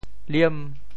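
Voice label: "liem5", Teochew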